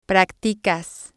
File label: "practicas", spanish